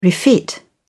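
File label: "refit", English, uk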